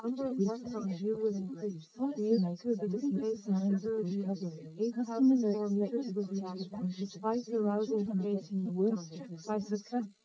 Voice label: female srec 130ms 20cm sim